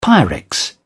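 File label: "pyrex", British English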